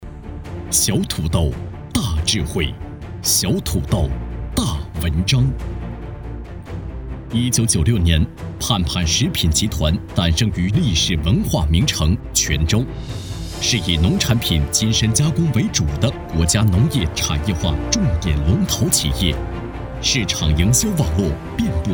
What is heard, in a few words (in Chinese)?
大气企业专题片; 盼盼食品集团